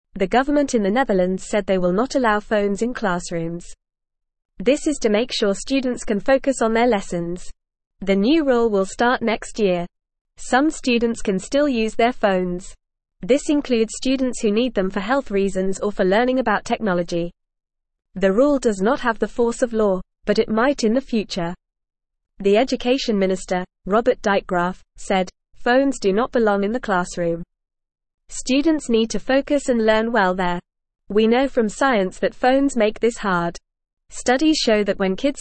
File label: English Newsroom Beginner FAST Reading; No Phones in Dutch Classrooms Soon